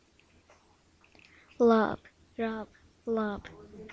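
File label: "rub", spoken word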